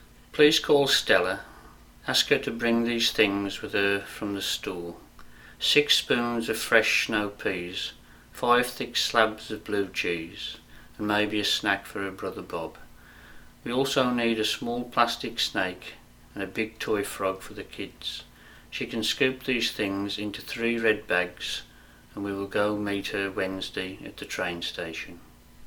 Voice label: Brummie accent; Birmingham (West Midlands), England; Accent Quiz